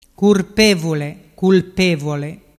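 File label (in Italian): "curpevule culpevole", Vernazzese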